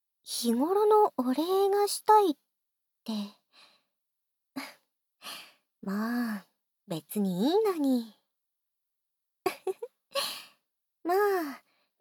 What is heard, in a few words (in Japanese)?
逆に耳かきをしてあげる（バイノーラル編集）, ASMR